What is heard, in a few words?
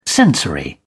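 "sensory", British English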